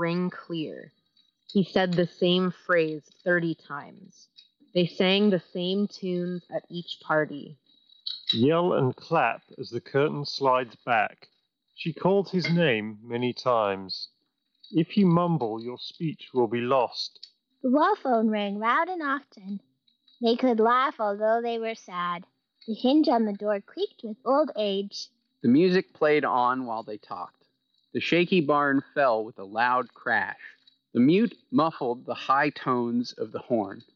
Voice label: Headphones Office microphone sample; Bose QC Ultra